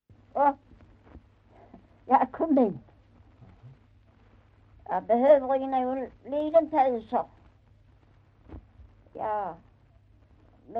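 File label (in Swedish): Maglarp Skåne, Svenska sagor dialekter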